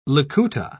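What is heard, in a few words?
"likuta", British English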